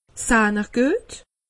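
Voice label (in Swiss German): "sahn", Alsatian; medecine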